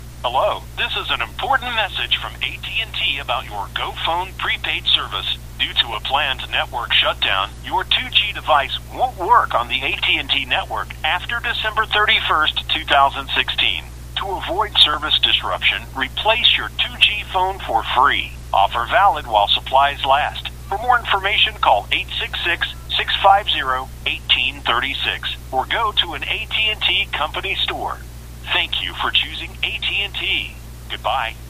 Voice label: att 2g autocall; att 2g sunset